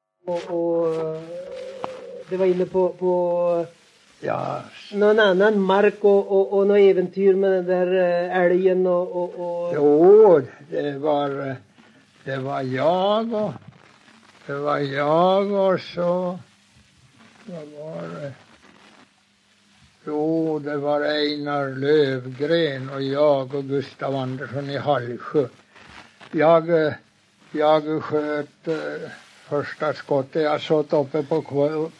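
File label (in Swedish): Huddunge Uppland, dialekter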